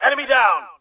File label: enemy down, Human; Titanfall 2; Pilots